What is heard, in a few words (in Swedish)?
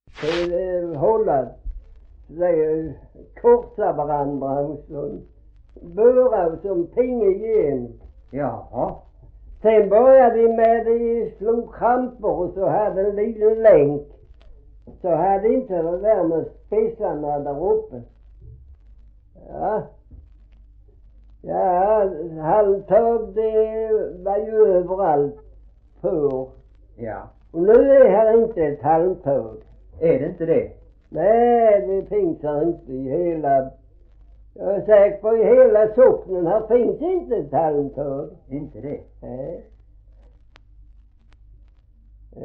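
Gråmanstorp Skåne, dialekter svenska sagor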